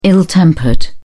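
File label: "ill tempered", British English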